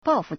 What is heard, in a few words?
"bao4fu4", Chinese